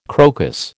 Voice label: "crocus", American